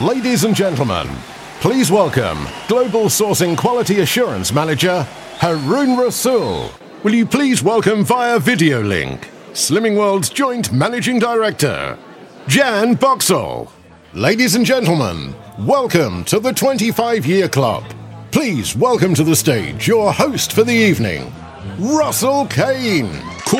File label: voice of god